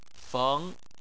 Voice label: "feng2", Chinese; Chinese Surnames